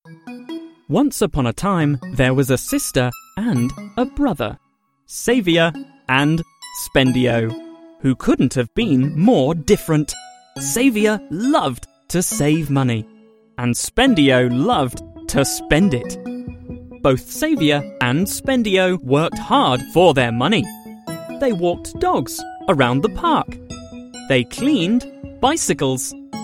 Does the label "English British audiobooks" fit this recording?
Yes